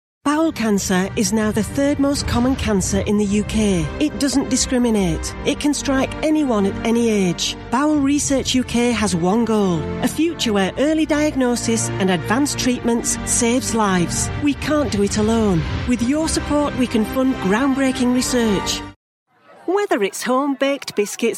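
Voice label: charity compassionate, female voiceover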